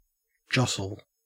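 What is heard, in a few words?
"jostle", Australian English; pronunciations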